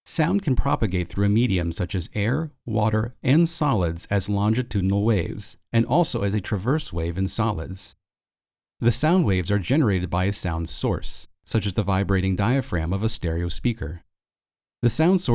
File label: Male Voice